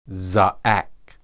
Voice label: "zaaq", Hebrew